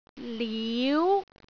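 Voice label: "liu2", Cantonese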